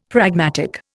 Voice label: "pragmatic", Indian; Dictionary

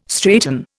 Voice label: "straighten", Indian

